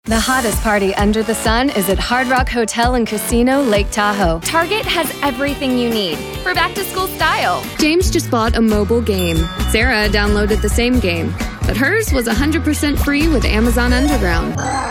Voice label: Commercial